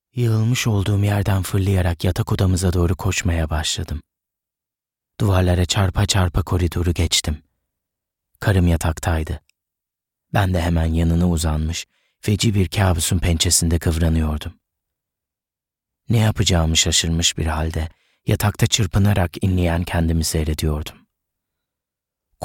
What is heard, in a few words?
turkish male audiobook